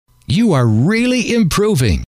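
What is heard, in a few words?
you Are Really Improving, male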